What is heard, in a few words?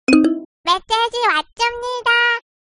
message on its way korean